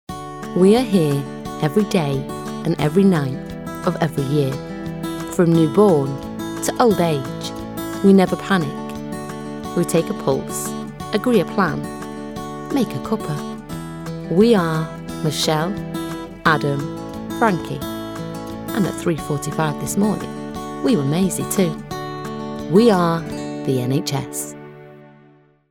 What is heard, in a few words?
Caring warm Trustworthy